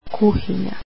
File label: kitchen, croatian